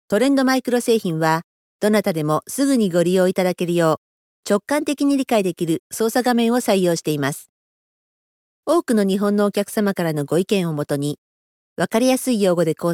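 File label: Japanese elearning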